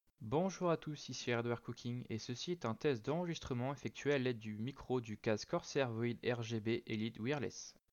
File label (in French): test micro